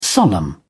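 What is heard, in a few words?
"solemn", English, British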